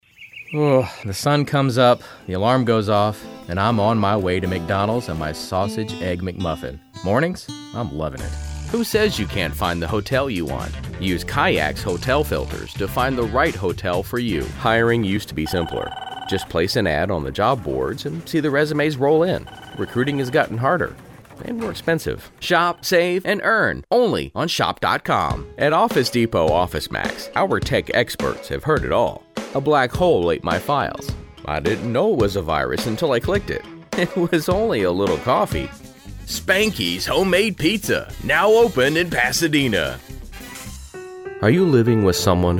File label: Commercial